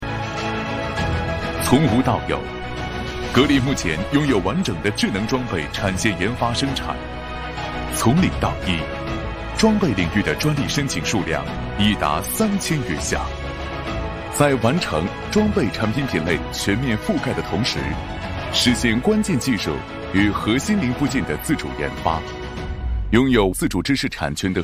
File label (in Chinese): 男 企业宣传; 央视品牌强国; 格力电器